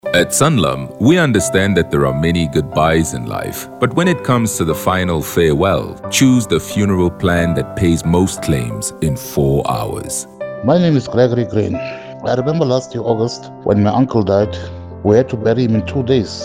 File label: testimonial English Audio; Sanlam